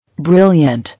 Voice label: "Brilliant", English